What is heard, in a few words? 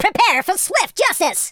kamikaze, speech, Super Hero